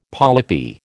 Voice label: "polypi", American